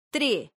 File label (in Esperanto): "tre", esperanto